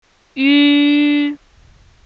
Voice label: ü, pinyin simple final, chinese